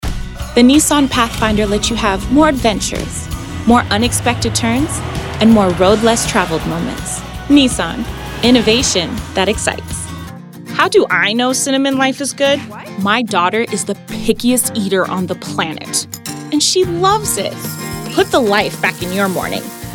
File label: english us and canada female commercial